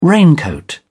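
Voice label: "raincoat", British English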